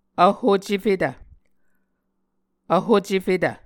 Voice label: "vhocefetv", Muscogee